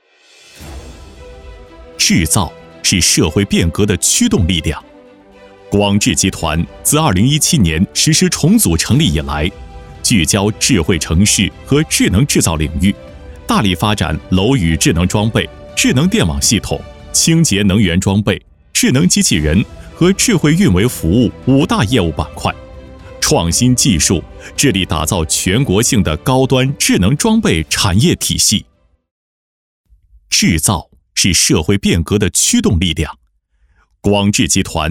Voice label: 男 宣传片 大气 匠心智造

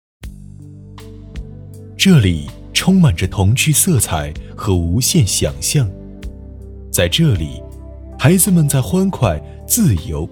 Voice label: 男 广告 儿童; 恐龙奇想

